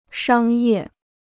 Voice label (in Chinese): "商业", Chinese